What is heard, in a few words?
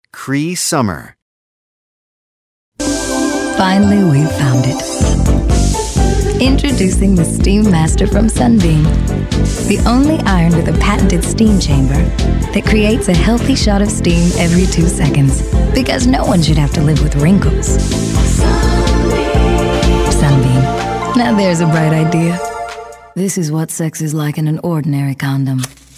commercial; Innovative LA